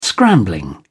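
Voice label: "scrambling", British English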